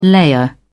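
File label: "layer", British English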